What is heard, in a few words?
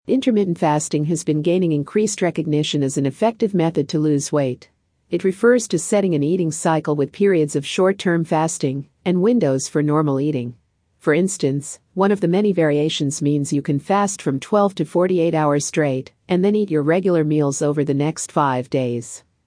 Female Audio; Intermittent Fasting